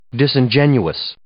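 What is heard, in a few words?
"disingenuous", English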